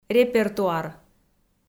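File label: "репертуар", Komi, female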